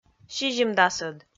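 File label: "сизимдасӧд", Komi, female; kpv audio dict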